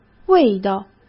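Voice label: "味道" Mandarin Chinese; hsk; chinese-flashcards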